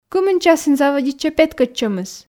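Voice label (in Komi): кымын часын заводитчӧ петкӧдчӧмыс, Komi, female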